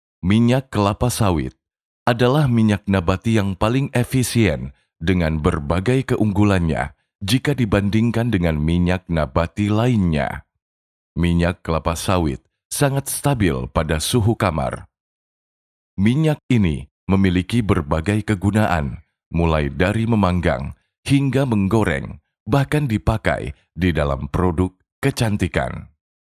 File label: FORMAL INDONESIA